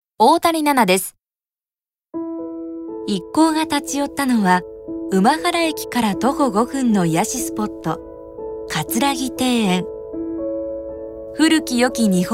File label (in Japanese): ノーマル